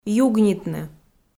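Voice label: "югнитны", Komi, female; kpv audio dict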